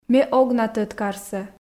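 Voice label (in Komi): ме ог на тӧд карсӧ, Komi, female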